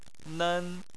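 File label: "nen", Chinese, first tone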